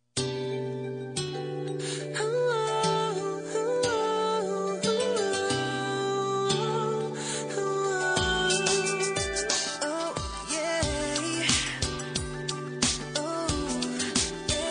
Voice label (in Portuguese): tempo namoro fem, Tempo de Namoro – Voz Feminina; telemensagem